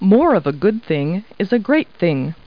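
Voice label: woman; Talking Clip Words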